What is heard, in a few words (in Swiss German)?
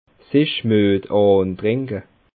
"muet", Bas Rhin